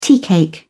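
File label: "teacake", British English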